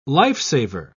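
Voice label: "lifesaver", British English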